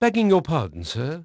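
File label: English male; Pirates Of The Caribbean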